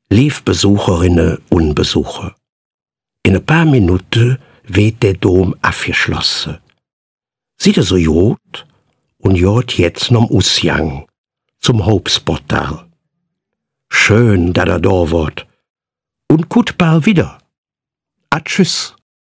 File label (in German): koelner dom ansage koelsch